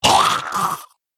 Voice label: Male Kobold Death